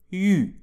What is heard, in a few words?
"yu", French